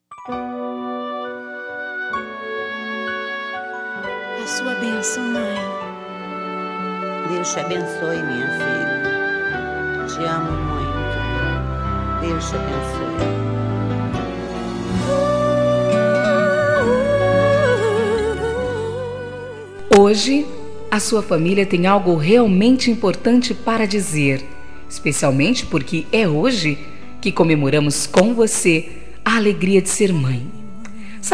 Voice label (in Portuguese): FEM; Datas importantes; Dia das mães; D. MÃE FAMILIAR FEM